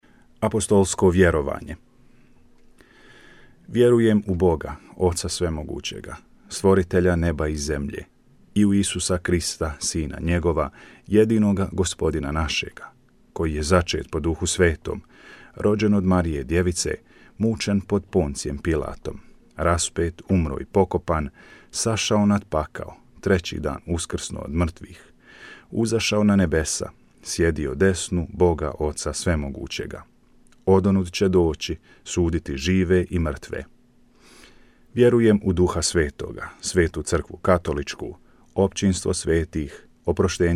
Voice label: Apostles Creed Croatian